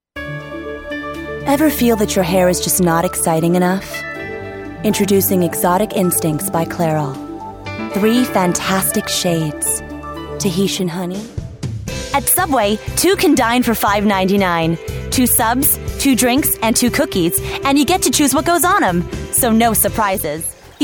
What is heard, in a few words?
commercials